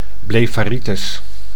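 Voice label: "blefaritis", Dutch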